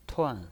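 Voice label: "tuan", pinyin voice, fourth tone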